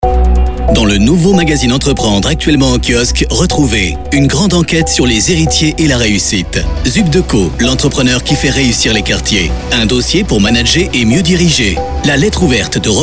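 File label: French, male, commercial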